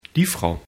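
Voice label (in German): "frau", German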